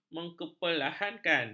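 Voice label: "memperlahankan", Malay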